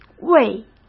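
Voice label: "位" Mandarin Chinese; chinese-flashcards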